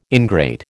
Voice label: "ingrate", American